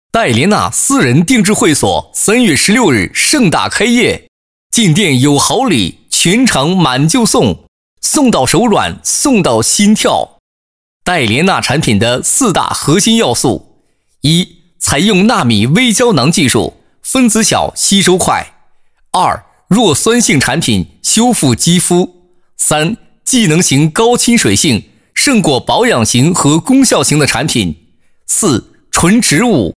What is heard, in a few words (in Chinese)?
专题产品介绍